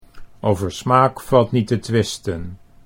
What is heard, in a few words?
"over smaak valt niet te twisten", Dutch